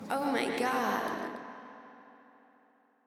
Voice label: Girl Talking